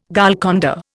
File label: "Golconda", Indian